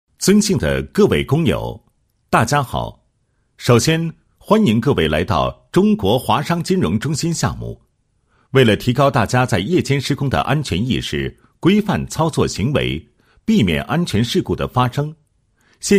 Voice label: 男-解说词-夜间施工注意事项, 配音案例

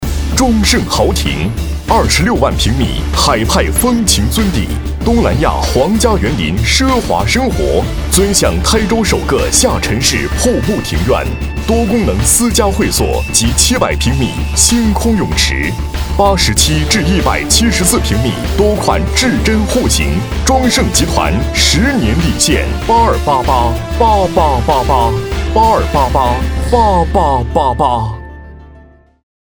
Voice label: 男80 地产; 中盛豪庭; 央视农广

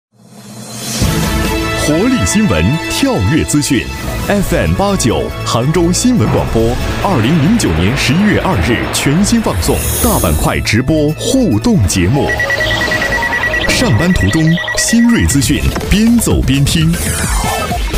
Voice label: 男80 广告 新闻广播, 国语男声配音; 杭州新闻广播; 央视农广

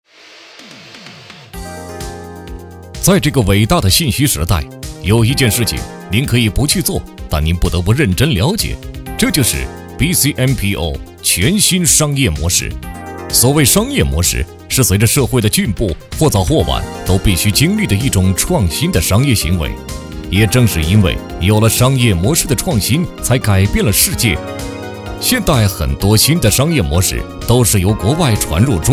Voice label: 国语男声配音 科技感 磁性; 商业模式; 央视老师

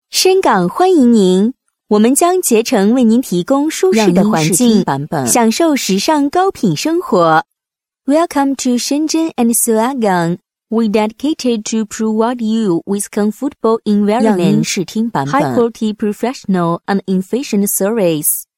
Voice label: 女 欢迎词; 深港欢迎您

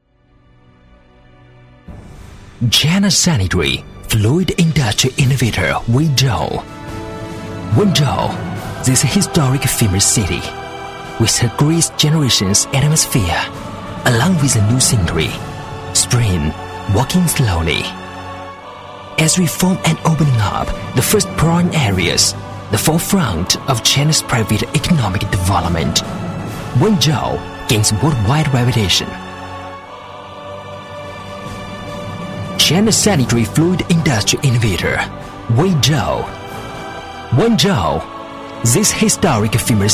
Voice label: 英男

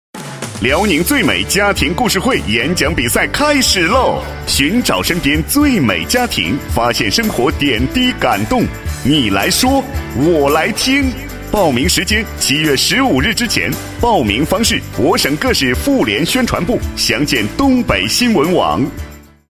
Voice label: 男 广告, 国语男声配音, 浑厚; 辽宁交广

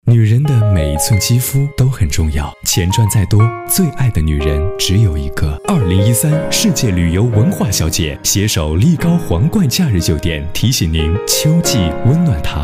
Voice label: 宣传片 温暖 青春 提醒, 国语男声配音; 大气沉稳; 力高提醒

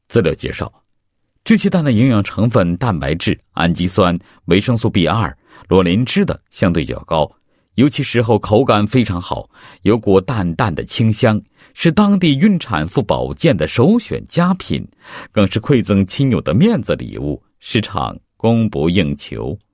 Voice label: 男 公司广告; 德州塞北江南餐饮店

